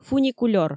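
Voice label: "фуникулёр" Komi, female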